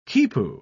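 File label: "quipu", English, uk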